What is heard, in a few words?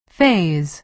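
"faze", American English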